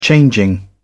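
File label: "changing", British English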